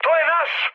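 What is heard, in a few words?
Serbian player friendly fire mood high; speech; War Thunder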